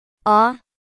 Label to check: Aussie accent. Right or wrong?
Wrong